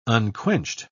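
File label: "unquenched", British English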